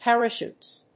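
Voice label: "Parachutes", English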